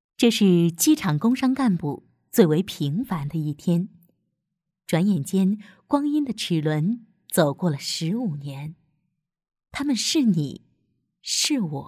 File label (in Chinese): 专题 人物 机场人物介绍